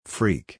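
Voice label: "Freak", English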